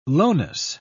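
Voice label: "lowness", British English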